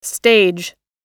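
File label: "stage", English; oxford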